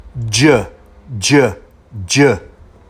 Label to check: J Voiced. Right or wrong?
Right